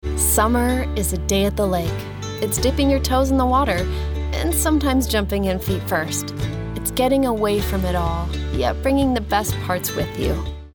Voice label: Commercial